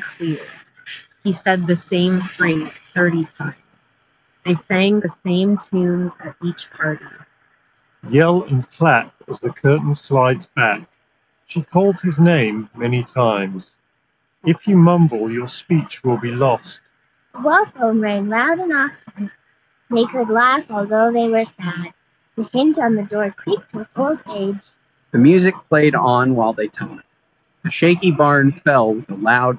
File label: Street microphone sample; Sony WH XB910N